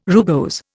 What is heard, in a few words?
"rugose", Indian